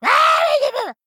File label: Male Murloc Attack